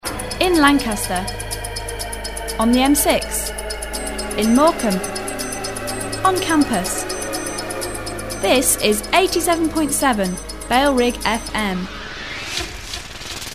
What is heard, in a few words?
female, sweep bed, jingles; in lancs m6 87.7 bfm; bailrigg fm; bfm jingles ads RSL 1994